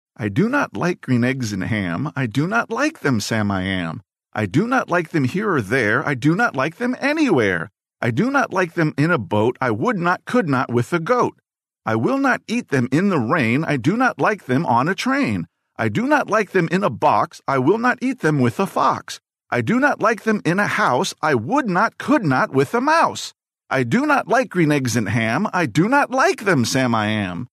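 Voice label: US English, male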